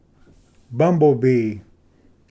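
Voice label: "bumble bee", English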